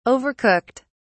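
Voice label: "overcooked", British English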